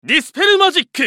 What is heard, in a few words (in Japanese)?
ウィザード男 ディスペルマジック